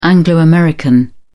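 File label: "anglo american", British English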